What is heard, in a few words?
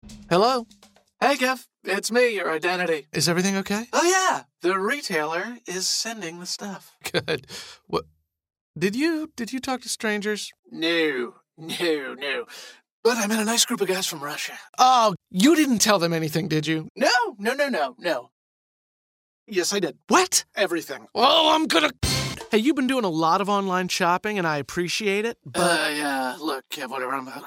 commercial